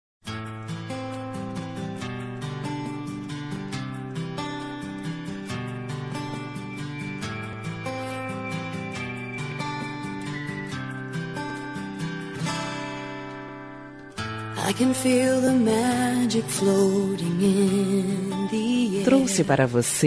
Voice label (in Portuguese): Romântica